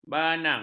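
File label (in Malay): "banang", Malay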